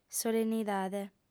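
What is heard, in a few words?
"solennidade", Sardinian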